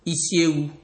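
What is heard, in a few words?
"isiewu", Nigerian Pidgin, male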